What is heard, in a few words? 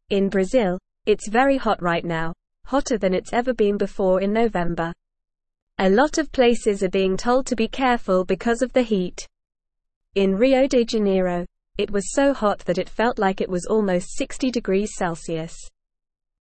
English Lower Intermediate NORMAL Reading; Hot Weather Alert Brazil Experiencing Record Brea; English Newsroom